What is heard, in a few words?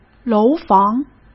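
"楼房", Mandarin Chinese; chinese flashcards; hsk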